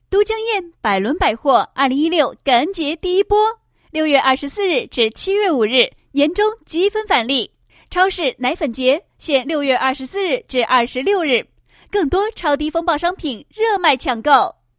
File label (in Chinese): 女 促销广告, 百货感恩回馈; 都江堰百伦百货